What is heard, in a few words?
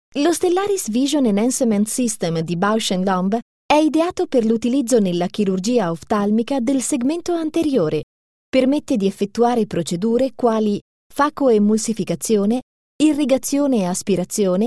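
Italian, female, elearning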